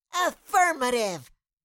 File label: Cartoon little child voice affirmative